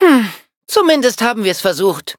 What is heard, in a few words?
defeat, de; cookierun